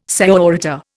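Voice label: "señorita", Indian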